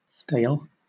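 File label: "stale", British English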